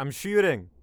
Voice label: Im firing, Barklines Combat Voice Lines; EndlessVendetta